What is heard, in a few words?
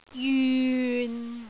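"jyun6", Cantonese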